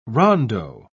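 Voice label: "rondeau", English, British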